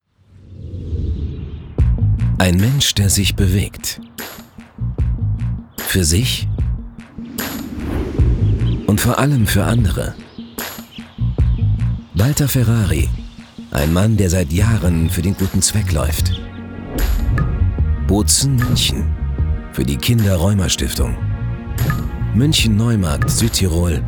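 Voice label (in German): Trailer, Sprecher, Männer; Run your Message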